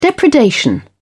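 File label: "depredation", English, British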